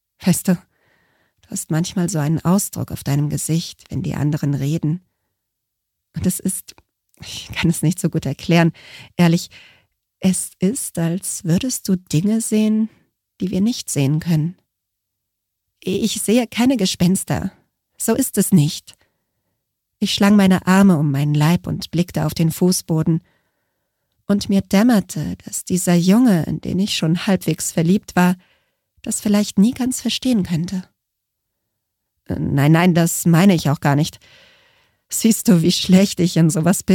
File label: german audiobooks